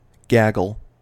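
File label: "gaggle", American English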